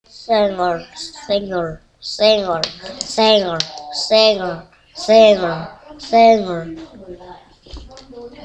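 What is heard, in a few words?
"singer", spoken word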